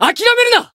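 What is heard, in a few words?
Voice heal, Japanese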